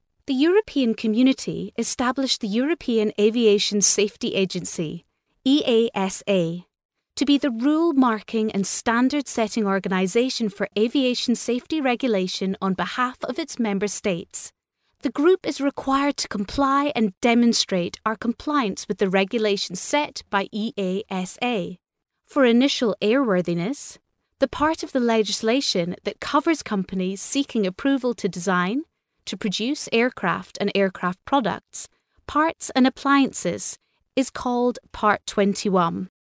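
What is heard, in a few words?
English Scottish female, scottish voice over talent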